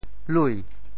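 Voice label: "lui2", Teochew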